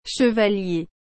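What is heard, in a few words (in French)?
"chevalier", French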